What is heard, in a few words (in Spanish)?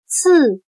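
"次", chino mandarin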